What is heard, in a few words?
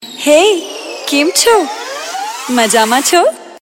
Hey Kem Cho, Gujarati Ringtone